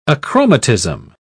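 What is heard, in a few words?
"achromatism", British English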